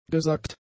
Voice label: "gesackt", German; adjectives

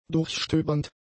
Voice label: "durchstöbernd", german; adjectives

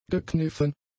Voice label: "gekniffen", German; adjectives

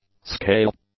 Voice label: "scale", English; nouns

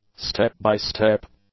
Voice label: "step-by-step", English; adjectives

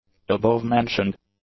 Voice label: "abovementioned", english; adjectives

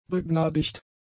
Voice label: "begnadigt", German; adjectives

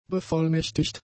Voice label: "bevollmächtigt", German; adjectives

